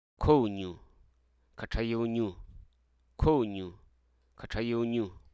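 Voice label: Hruso Aka; bygone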